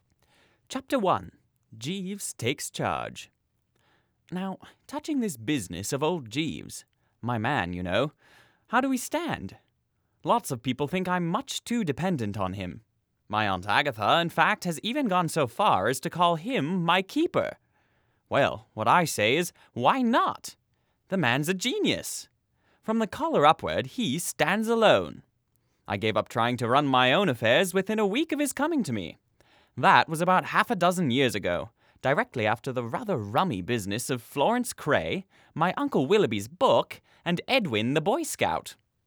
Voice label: Voiceover KSM No Effects